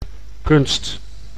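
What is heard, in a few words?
"kunst", Dutch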